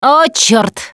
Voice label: reaction negative, russian, Survivor Voice Producer